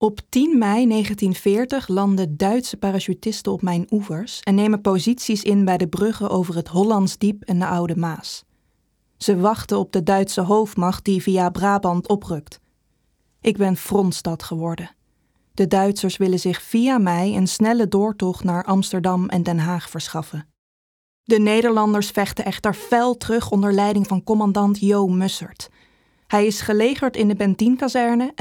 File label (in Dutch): Museumtekst